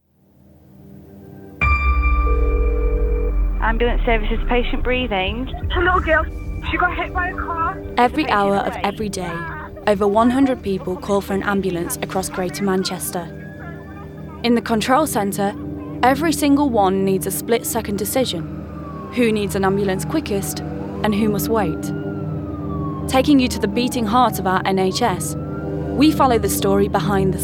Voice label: english british narration